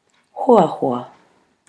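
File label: "hoahoa", Maori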